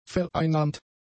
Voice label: "vereinnahmt", German; adjectives